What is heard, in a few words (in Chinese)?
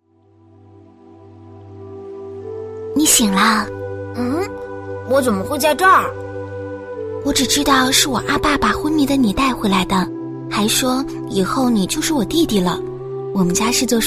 女-角色模仿